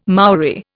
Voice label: "Maori", Indian

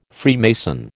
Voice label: "Freemason", American

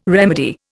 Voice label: "remedy", Indian

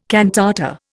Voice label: "cantata", Indian

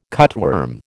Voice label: "cutworm", American

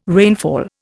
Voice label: "rainfall", Indian

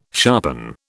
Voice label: "sharpen", British

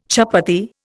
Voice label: "chapatti", Indian

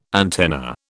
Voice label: "antenna", British

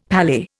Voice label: "palais", Indian; Dictionary

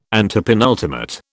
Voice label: "antepenultimate", British

